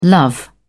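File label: "love", British English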